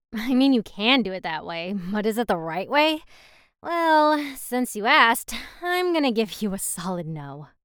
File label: Character Sample Honest Amused